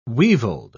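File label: "weevilled", British English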